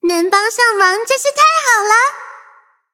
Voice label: victory, zh-hant